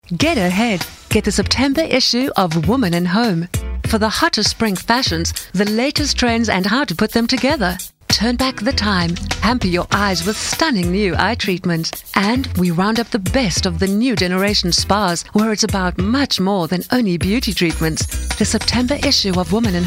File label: female English South African commercial; woman and home